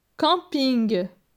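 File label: "Camping", French; phonetique; les consonnes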